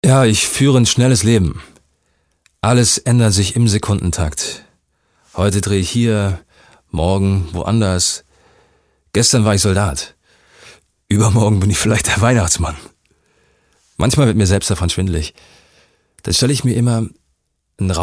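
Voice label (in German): Erzählung; voxhaus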